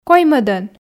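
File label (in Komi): "коймӧдӧн", Komi, female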